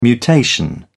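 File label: "mutation", British English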